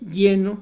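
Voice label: "lleno", Mexican Spanish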